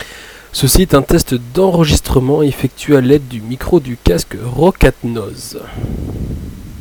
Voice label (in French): test micro, Roccat